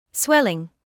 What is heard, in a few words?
"swelling", medical English